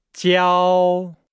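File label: "jiao", Chinese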